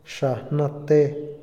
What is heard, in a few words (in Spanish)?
"maestro", Otomí, hombre; Cognición; INALI